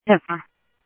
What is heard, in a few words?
Police, scanner audio trunk; H-Scottsdale